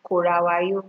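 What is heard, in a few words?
"korawayo", Nigerian Pidgin, female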